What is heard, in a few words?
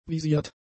"visiert", German; adjectives